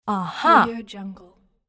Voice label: "uh huh", teenage girl